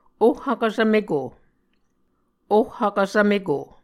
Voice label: "oh vkvsvmeko", Muscogee